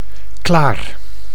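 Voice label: "klaar", Dutch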